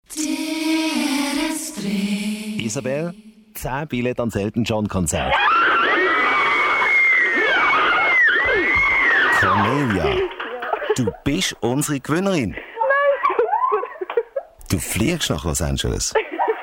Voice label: TRAILER; EMO TRAILER Intello Seiten von V3